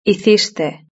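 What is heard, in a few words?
"hiciste" Spanish; verb-conjugator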